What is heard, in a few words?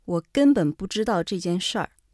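mandarin std, Chinese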